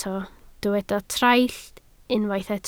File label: "traill", Welsh